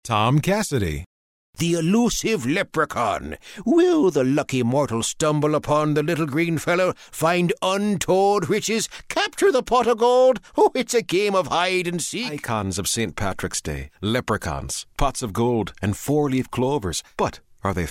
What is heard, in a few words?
irish